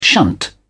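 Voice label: "shunt", British English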